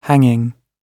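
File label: "hanging", British English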